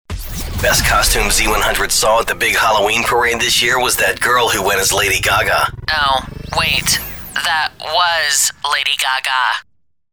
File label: ENGLISH - male - Adult, Philippines; Ker Sound Studio Shanghai China